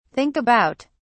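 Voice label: "think about", British English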